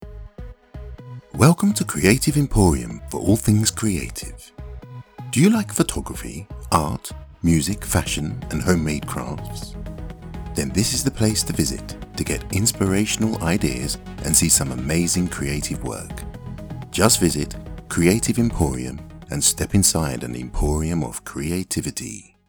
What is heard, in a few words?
Mono and Music